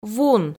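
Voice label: "вун", Komi, female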